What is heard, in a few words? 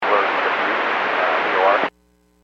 Aviation 121.5 Emergency